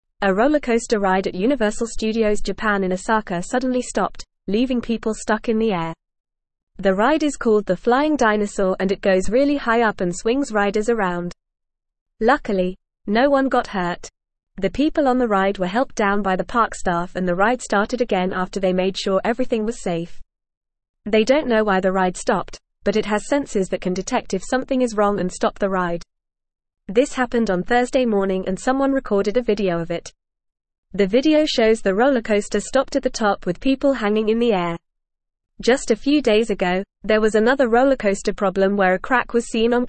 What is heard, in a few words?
English Upper Intermediate FAST Reading; English Newsroom; Rollercoaster at Universal Studios Japan stops mid